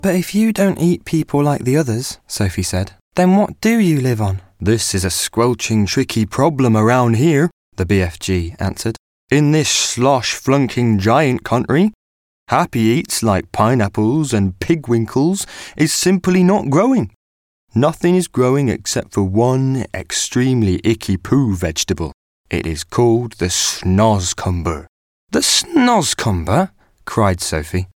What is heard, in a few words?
Audiobook